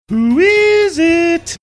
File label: saying who is it sing songy